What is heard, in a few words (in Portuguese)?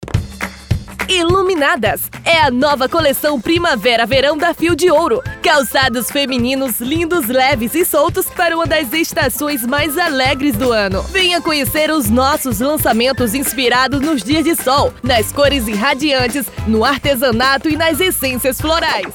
FEMININA